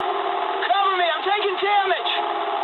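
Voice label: aircraft damaged mood low, speech English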